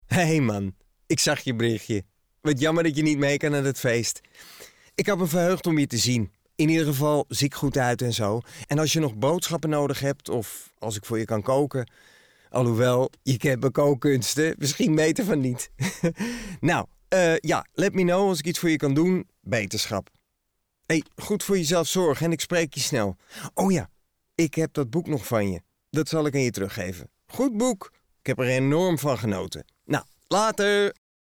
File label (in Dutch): Naturel voice mail, voice-overs